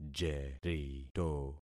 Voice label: "jerito", concat syllables; Affixing experiment

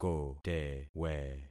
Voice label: "kotewe", concat syllables; Affixing experiment

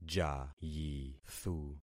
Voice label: "jayithu", concat syllables; Affixing experiment

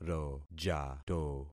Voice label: "rojato", concat syllables; Affixing experiment

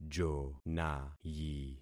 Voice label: "jonayi", concat syllables; Affixing experiment

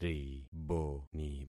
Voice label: "riboni", concat syllables; Affixing experiment

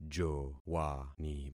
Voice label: "jowani", concat syllables; Affixing experiment

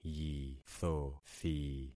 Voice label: "yithothi", concat syllables; Affixing experiment

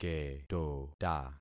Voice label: "ketota", concat syllables; Affixing experiment

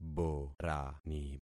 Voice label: "borani", concat syllables; Affixing experiment

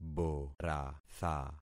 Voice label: "boratha", concat syllables; Affixing experiment

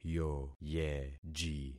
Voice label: "yoyeji", concat syllables; Affixing experiment

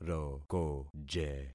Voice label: "rokoje", concat syllables; Affixing experiment

